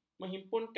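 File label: "menghimpunkan", Malay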